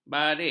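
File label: "barik", Malay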